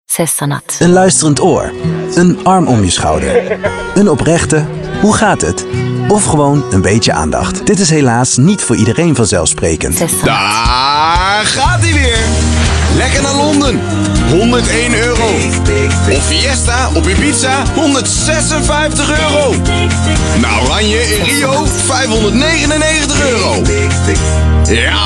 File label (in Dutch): DUTCH